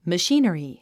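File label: "machinery", 英単語 発音; məʃíːn ə ri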